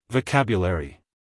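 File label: "vocabulary", American English, male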